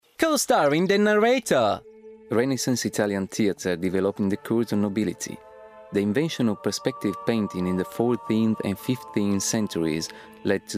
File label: narrator, italian actor; Citylit - London